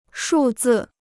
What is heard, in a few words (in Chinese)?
"数字", Chinese